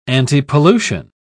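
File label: "antipollution", British English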